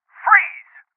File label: Voices Freeze Male